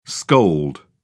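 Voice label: "scold", British English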